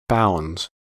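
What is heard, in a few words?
"bounds", US English; pronunciations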